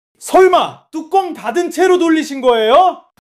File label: anger